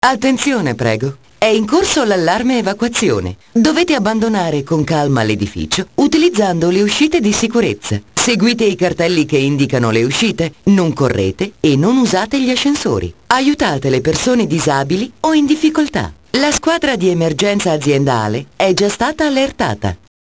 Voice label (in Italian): messaggio di evacuazione in italiano; Toni; Plena VAS; Bosch